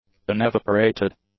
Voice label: "unevaporated", english; adjectives